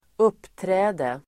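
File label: "uppträde", Swedish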